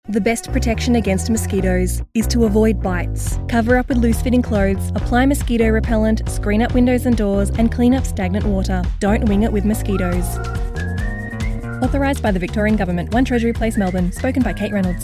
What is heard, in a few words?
Mosquito bite prevention radio